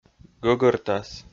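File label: "гӧгӧртас", Komi, male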